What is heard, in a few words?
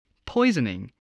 "poisoning", English